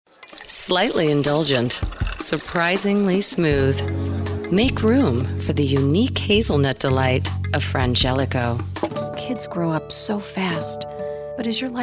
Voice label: Voice Over